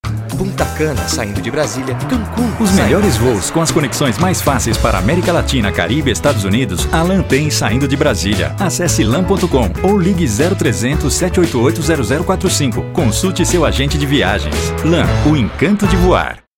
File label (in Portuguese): Spot, Locução; Spot 30 Segundos; Ecopistas